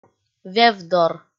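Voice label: "вевдор", Komi, female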